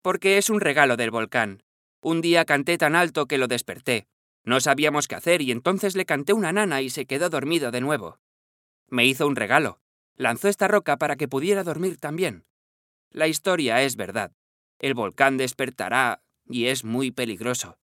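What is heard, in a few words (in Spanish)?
Narracion Adolescente, voz en off; Narration - Teenage - Performed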